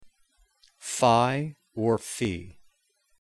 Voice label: greek phi